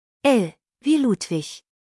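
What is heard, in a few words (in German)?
"ludwig", German; buchstabierung alt; L wie Ludwig; Buchstabieren Sie bitte!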